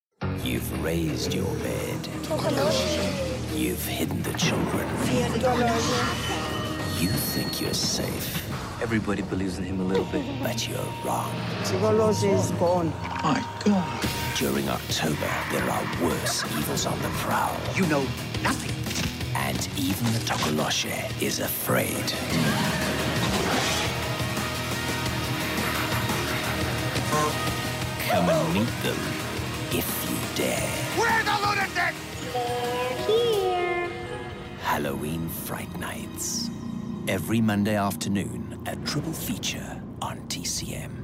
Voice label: ENGLISH scary